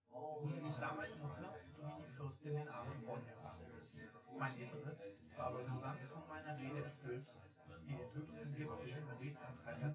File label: male synth conv